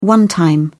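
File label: "one-time", English, British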